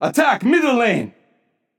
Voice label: vox attack; smite; raijin; voicepack